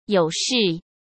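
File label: "有事", Mandarin Chinese; hsk; chinese-flashcards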